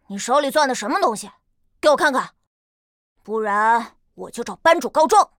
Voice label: 监督小孩, peiyin

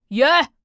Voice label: 胖小孩倒数

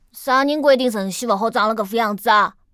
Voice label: 残疾小孩

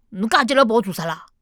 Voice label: 胖小孩

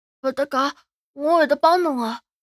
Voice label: 男孩 对话

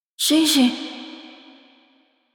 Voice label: 呼唤; c02 小蝉

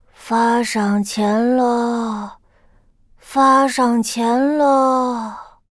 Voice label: 监督小孩, peiyin

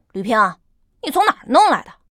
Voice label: 监督小孩, peiyin

